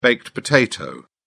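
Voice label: "baked potato", British English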